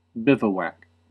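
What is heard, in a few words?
"bivouac", American English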